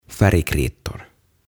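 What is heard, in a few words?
"Färgkritor", Swedish; Väriliidut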